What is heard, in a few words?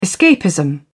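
"escapism", British English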